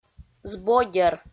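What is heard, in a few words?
"збодьӧр", Komi, female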